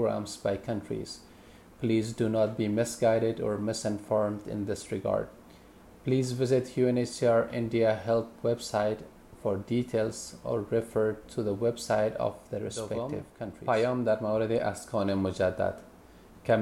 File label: Resettlement message Dari